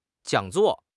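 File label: "jiang3 zuo4", Chinese; 汉语口语新时代-初级下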